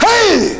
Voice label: "hey", voice